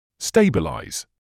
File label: "stabilise", English